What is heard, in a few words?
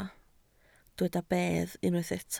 "bedd", Welsh